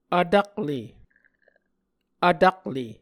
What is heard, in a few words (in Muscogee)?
"vtakle", Muscogee